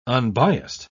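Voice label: "unbiassed", British English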